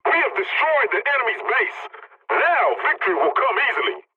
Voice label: pilot base destroyed enemy, english; War Thunder